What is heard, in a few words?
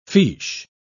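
"fiche", English, British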